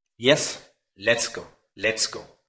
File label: Voice Acting, es